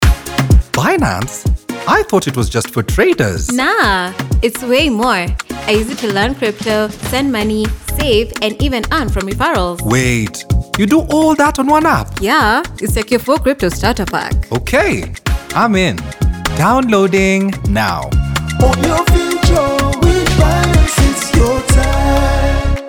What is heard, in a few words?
Radio Ad; Binance Crypto Starter Pack; bilingual African voice actor